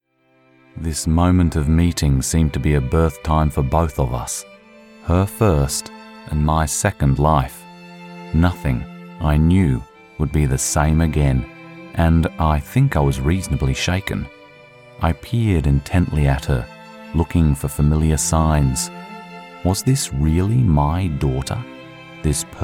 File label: narrative